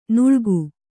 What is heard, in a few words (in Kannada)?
"ನಾಳಿಗೆ", Kannada